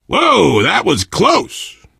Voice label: player transition close, player survivor voice biker; source engine; left 4 dead 2